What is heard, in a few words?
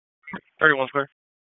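EMS Dispatch; wake; p25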